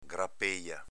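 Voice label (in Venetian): "grapeja", Veneto dialect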